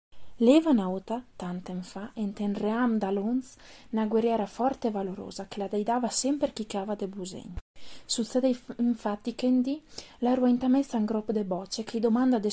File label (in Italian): Colle, Colle S. Lucia, F; Stimolo 6 - Colle S. Lucia (F, 1998)